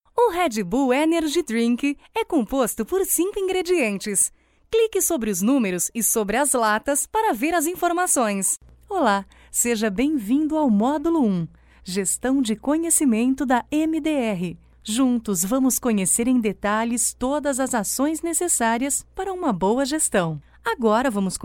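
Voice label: Portuguese Brazilian F E-learning, female; voice over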